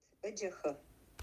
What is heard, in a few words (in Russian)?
"эдехэ", [эдехэ]; Амулет